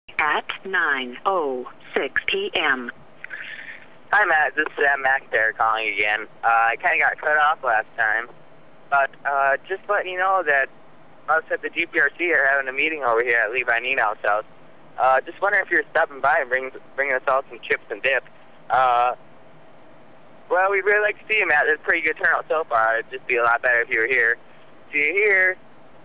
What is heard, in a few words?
Voicemails